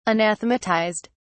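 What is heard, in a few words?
"anathematized", English, British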